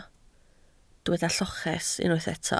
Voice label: "lloches", Welsh